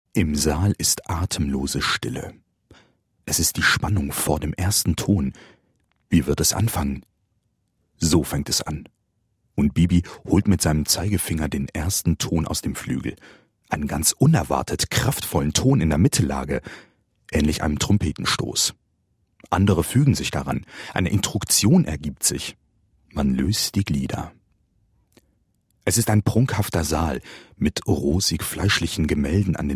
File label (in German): hoerbuch